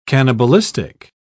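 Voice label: "cannibalistic", British English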